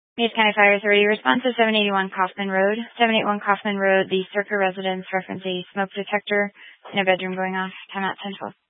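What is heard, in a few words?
Fire Dispatch, scanner audio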